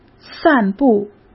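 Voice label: "散布", Mandarin Chinese; hsk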